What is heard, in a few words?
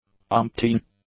"umpteen", English; adjectives